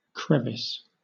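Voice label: "crevice", British English; pronunciations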